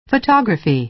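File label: "photography", American English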